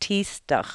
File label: aleut